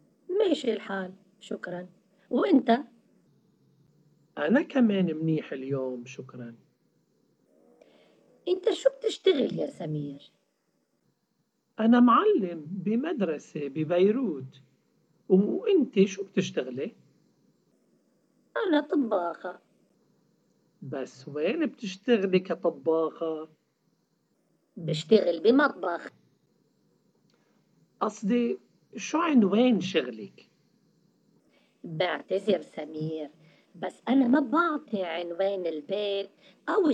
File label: dialogue, Arabic MSA; a complete course in spoken written arabic msa